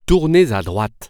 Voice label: Turn Right, french; waze